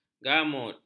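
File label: "gamut", Malay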